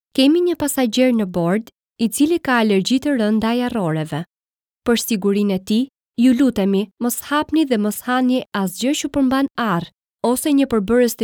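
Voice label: Albanian female E-learning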